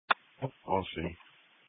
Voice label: Police Patrol 1 (Dispatch)